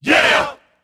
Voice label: "yeah", group of men; ooh ahh